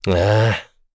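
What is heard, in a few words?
Vox Deny, Korean; Kain; kingsraid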